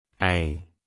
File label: Phoneme Male; Commonscript; Lynguistykon